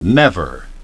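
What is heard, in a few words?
"never", English pronunciation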